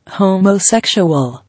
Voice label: "Homosexual", English